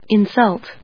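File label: "insult", verb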